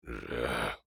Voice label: Emote Negative; Drek'ar